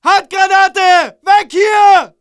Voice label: ger grenade in, german